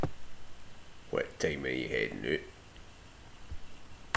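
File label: "headin", slang; Ah huvnae seen ye aboot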